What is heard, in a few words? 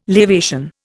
"lavation", Indian